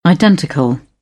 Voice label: "identical", British; English

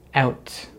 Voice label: "out", American English; Diphthongs in American English; easypronunciation

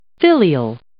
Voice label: "filial", English

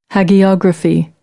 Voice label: "hagiography", American; English

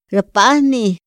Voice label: "ra pahni", Otomí; INPI; INALI

